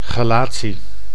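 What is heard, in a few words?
"chelatie", Dutch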